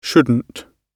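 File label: "shouldn't", British English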